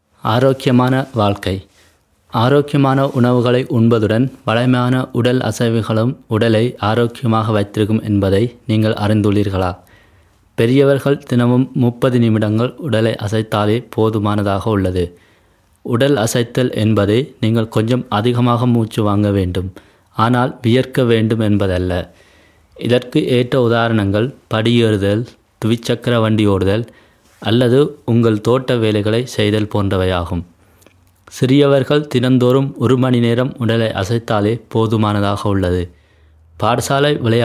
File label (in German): tamil